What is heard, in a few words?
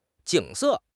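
"jing3 se4", Mandarin Chinese; HSK; HSK4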